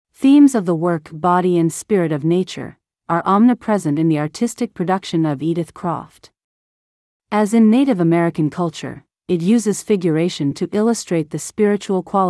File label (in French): anglais